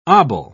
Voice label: "obol", pronounce